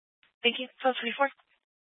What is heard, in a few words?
Police Department Dispatch, scanner